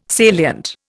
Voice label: "salient", Indian